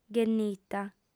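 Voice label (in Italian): "ghennita", lingua sarda, female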